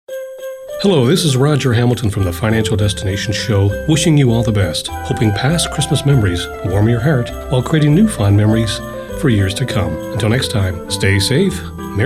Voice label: Christmas Greeting Spot